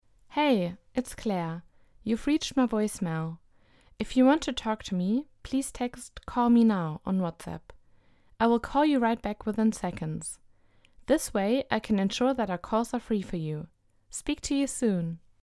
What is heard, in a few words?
voicemail